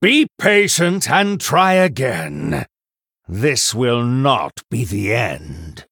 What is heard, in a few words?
Male Human; Behind